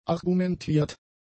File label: "argumentiert", German; adjectives